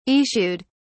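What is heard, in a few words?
"issued", British English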